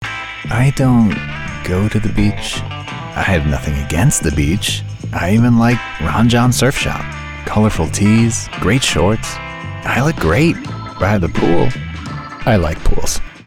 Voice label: Commercial; Ron Jon Surf Shop